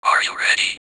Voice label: Back vocals "Are you ready"